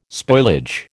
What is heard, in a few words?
"spoilage", American